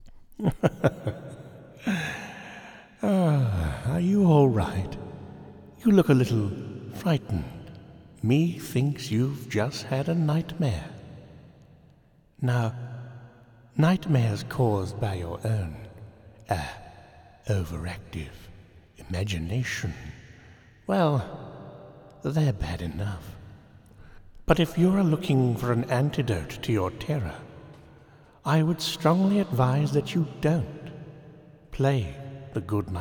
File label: Improvised intro for podcast; Good nightmare; Spanish - Spain (Castilian)